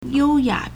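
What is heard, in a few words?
"yōuyǎ" Chinese; 幽雅; adjective; serene and elegant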